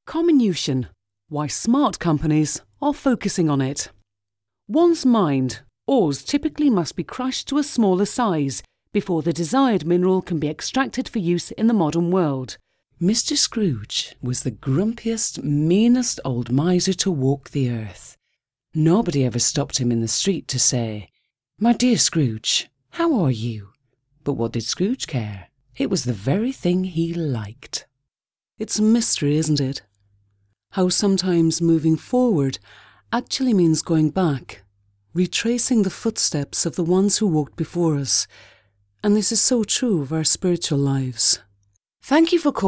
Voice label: Dry Reel